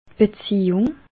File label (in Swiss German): "beziejung", alsace